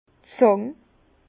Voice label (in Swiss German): "zang", Bas Rhin; vin; pince plate